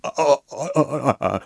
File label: Stutter; stuttering; Groose; Skyward Sword